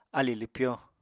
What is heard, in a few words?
"alì lwpyo", Apatani